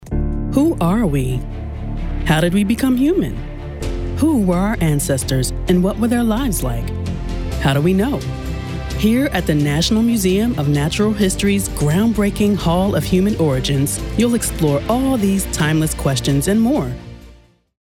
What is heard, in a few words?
narr museum